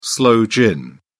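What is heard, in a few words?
"sloe gin", British English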